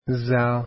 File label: "zaa", Arabic alphabet